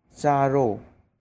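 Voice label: "Charo" Manipuri; Drink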